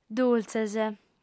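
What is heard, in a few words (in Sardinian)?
"dultzes", female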